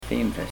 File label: "fimfe", dutch; 5; Pennsylvania Dutch